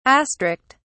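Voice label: "astrict", British English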